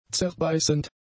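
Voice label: "zerbeißend", German; adjectives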